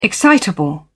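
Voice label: "excitable", British English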